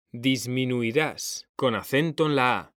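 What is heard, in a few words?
"DISMINUIRÁS", Spanish; mekanta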